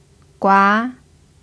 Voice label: "gua2", chinese